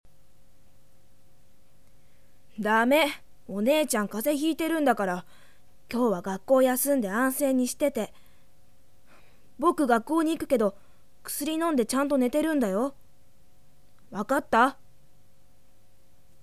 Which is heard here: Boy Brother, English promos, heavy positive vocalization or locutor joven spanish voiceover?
Boy Brother